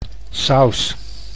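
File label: "saus", Dutch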